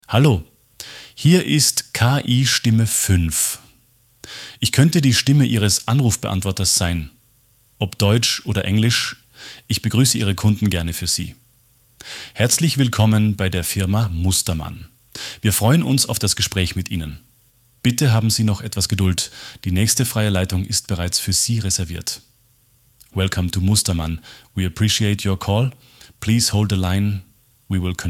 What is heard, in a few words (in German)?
KI-Stimme